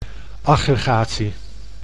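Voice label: "aggregatie", Dutch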